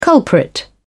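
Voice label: "culprit", British English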